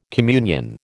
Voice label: "communion", American

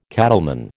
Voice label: "cattleman", American

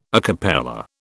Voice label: "a cappella", British

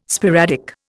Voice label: "sporadic", Indian

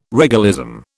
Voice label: "regalism", British

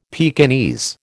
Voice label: "Pekingese", American

